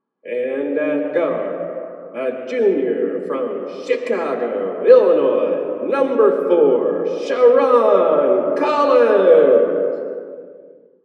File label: Announcer Balloon